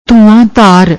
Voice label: "dhunaandhar", Punjabi